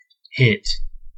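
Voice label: "hit", American English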